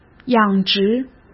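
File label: "养殖", Mandarin Chinese; hsk; chinese-flashcards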